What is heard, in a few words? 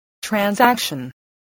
"transaction", voice